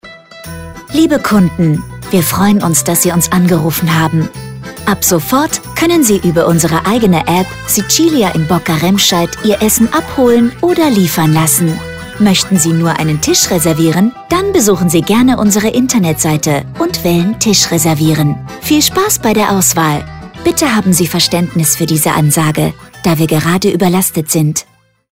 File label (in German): Ansage; Sicilia in bocca